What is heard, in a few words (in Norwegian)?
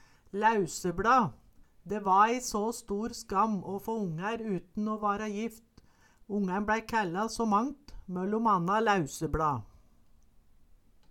"læusebLa", Numedalsmål